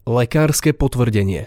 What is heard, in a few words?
"lekarske potvrdenie", slovencina